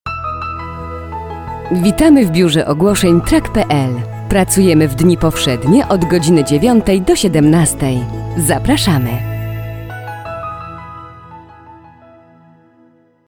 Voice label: IVR